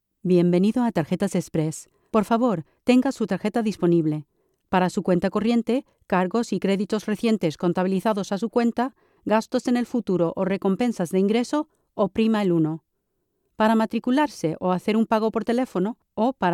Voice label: Spanish Corporate

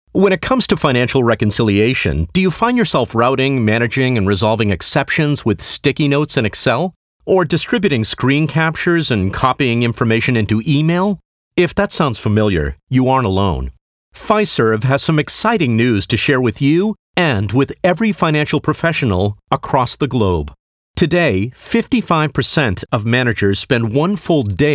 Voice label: Upbeat Product

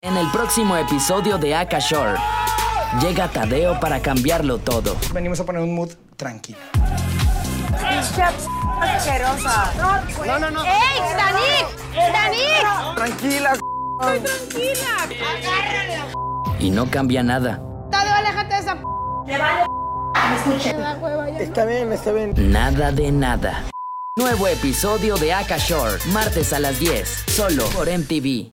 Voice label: Promo; MTV

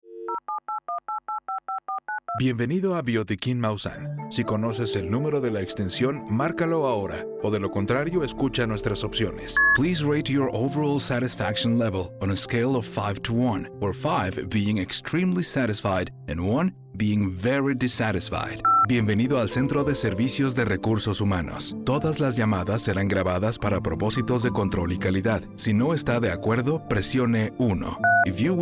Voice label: IVR

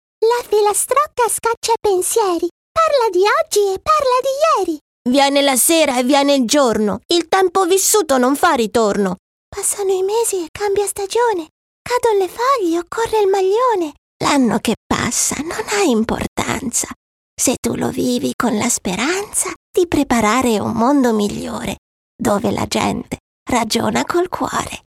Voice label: Characters children old voice

